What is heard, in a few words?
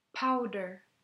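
"powder", English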